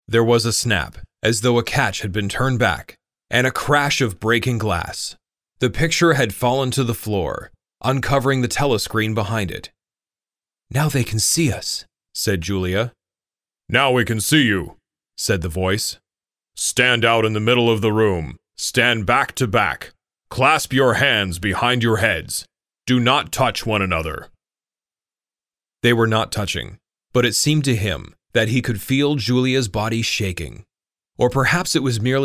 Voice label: Audio Book